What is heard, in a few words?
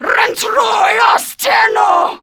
Attack, Female